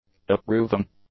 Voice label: "approving", English; adjectives